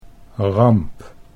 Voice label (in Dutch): "ramp", Dutch